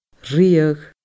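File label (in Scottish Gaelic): "riadh", Scottish Gaelic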